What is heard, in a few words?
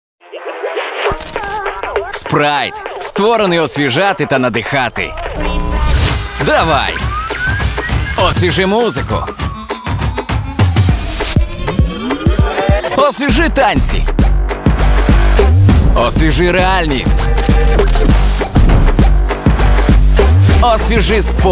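Ukrainian, male, commercial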